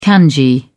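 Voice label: "kanji", British English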